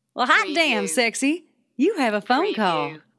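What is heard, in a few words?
Female Sexy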